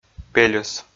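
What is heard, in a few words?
"пельӧс", Komi, male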